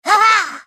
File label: spellcast effort; Fizz